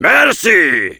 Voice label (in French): Heavy thanks, fr; "Merci !"